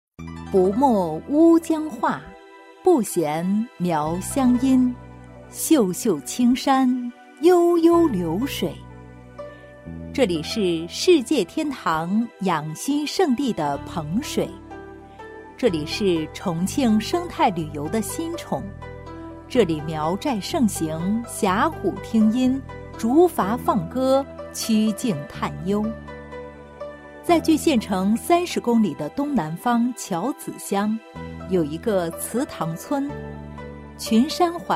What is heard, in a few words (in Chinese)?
女 宣传片 自然 旅游宣传